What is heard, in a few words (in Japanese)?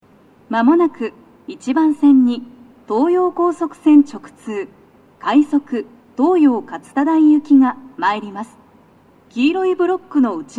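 接近放送, 女声, 両面箱型での収録です。; 放送は「快速 東葉勝田台行き」です。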